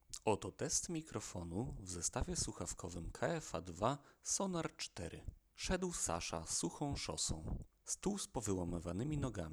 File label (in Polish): mikrofon